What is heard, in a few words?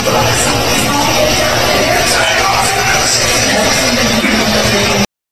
whispered voice recorded "Inside the office"; Case: State Theater, Easton, PA